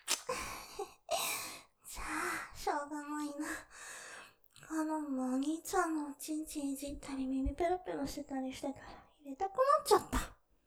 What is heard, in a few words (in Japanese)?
導入, ASMR; 立体; ステレオ; じゃぁ、しょうがいないなぁ♪